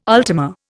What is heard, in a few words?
"ultima", Indian